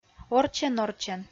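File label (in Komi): "орччӧн-орччӧн", Komi, female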